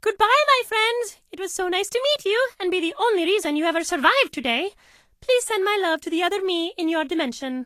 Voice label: English Voice